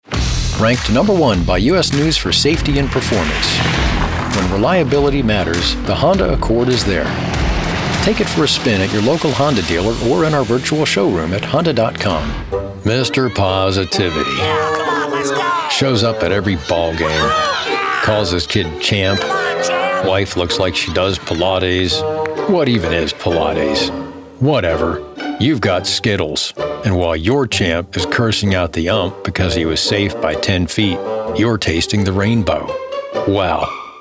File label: Commercial